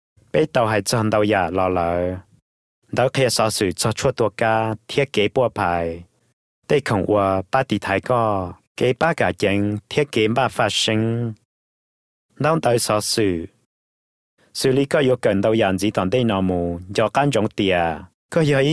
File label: Hmong